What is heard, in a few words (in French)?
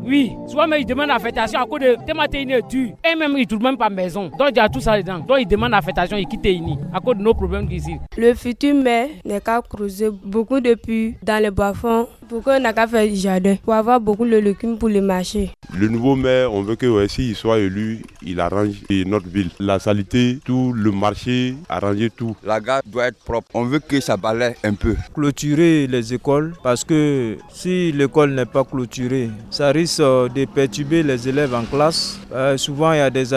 vox pop plaidoyer femmes et jeunes de Tehini aupres des futurs elus